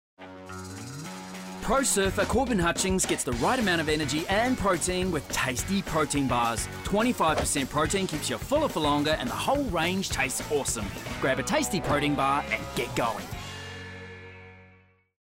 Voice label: accents